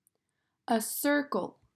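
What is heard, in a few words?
"A circle", English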